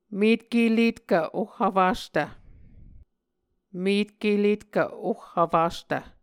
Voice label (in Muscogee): "metkeletkv oh vfastv", Muscogee